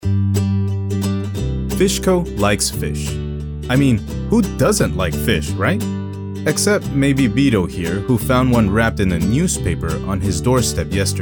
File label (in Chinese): 外籍英男 渔业轻快语调宣传片